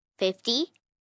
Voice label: Numbers "Fifty", 1 child, girl counting; Talking Sound Effects; Sound Effects:Voice; VoiceBox